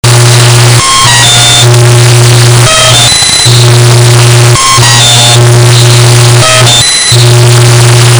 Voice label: Poetry